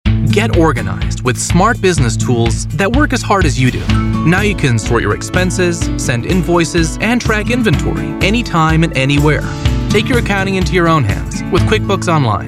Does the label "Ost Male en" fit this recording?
No